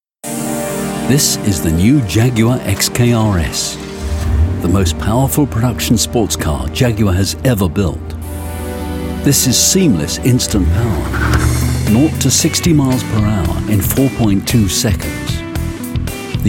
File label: english british corporate videos; um dublador inglês